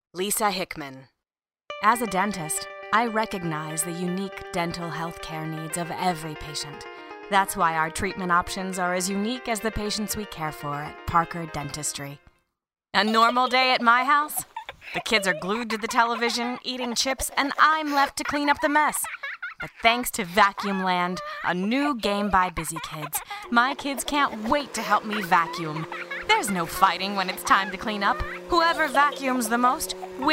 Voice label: voice over